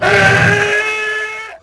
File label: tusken fem die, creatures; Star Wars Obi-Wan; XBOX